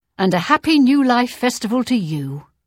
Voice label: New Life Greeting Imperial Female; Unused Holiday